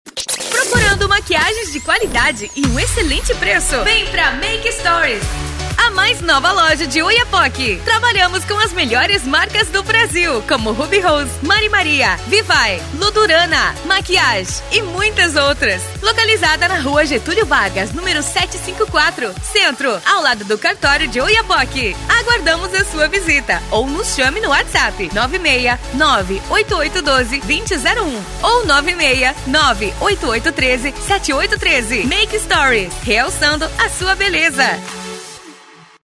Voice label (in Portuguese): locutora; comerciais; MAKE STORIES